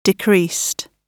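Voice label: "decreased", British English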